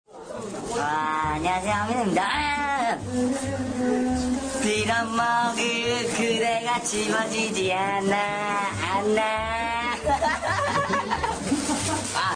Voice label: cute helium voice